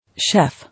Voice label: "Chef", English